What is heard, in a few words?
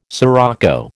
"sirocco", American